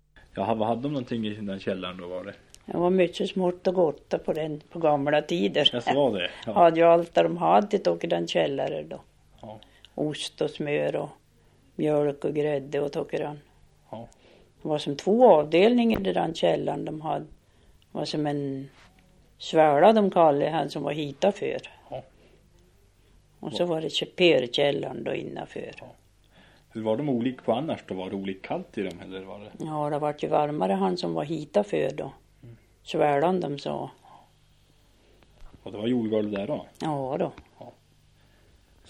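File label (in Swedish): Sundsjö Jämtland, dialekter; Svenska sagor; Folkminnen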